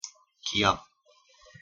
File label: "ㄱ", ko